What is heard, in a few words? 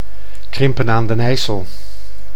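"Krimpen aan den IJssel", Dutch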